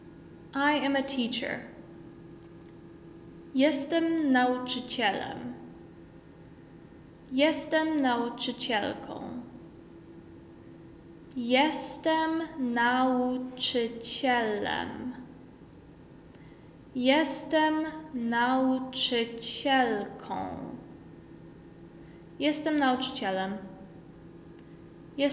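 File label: Polish I am a teacher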